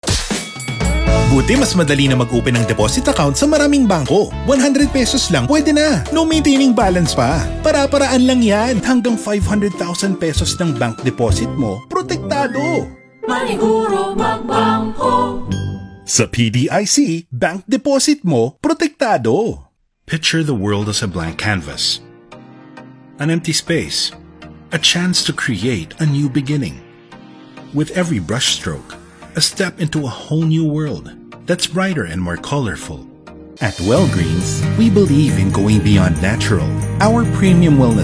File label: COMMERCIAL, Male Voice